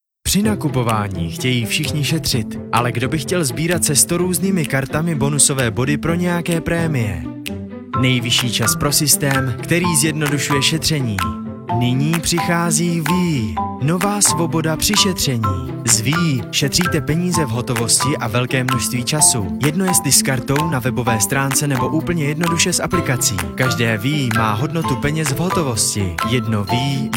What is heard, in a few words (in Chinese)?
捷克语男 外语 小语种 成熟